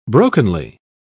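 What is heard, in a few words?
"brokenly", British English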